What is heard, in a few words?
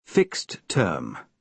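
"fixed term", British English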